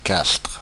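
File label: "Castres", French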